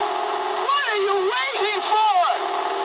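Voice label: English attack position mood high; speech; War Thunder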